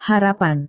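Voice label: "harapan", Indonesian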